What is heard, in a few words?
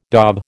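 "dob", American English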